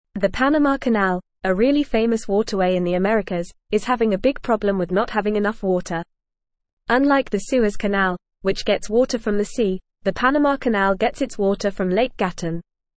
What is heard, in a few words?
English Upper Intermediate FAST Reading; Panama Canal Faces Critical Water Shortage Threaten